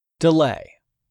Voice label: "delay", English; 3000 words